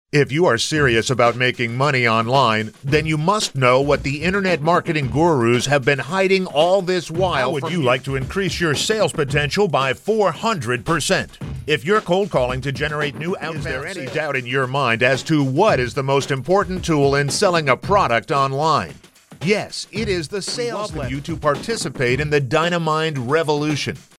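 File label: American English, male, voice over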